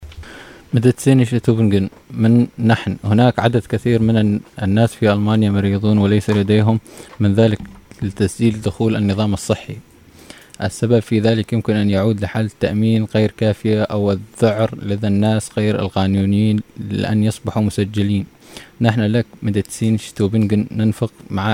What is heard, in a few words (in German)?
Arabisch; Medinetz